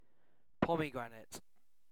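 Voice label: "pomegranate", British English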